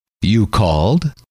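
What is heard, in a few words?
you Called, male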